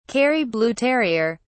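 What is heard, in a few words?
"kerry blue terrier", British English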